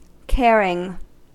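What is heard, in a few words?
"caring", US English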